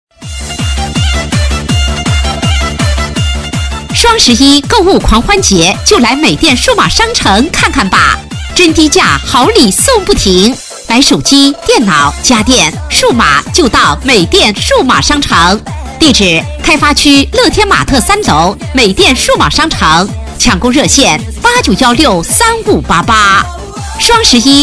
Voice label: 家电促销

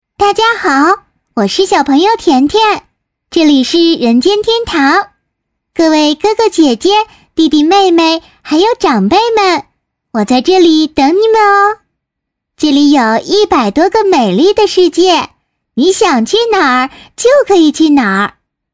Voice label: 童声 女童小盆友

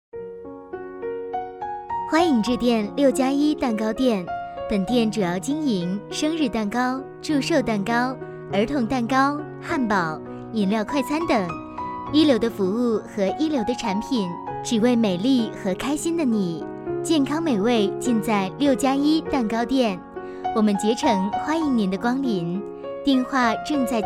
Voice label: 彩铃 蛋糕店彩铃

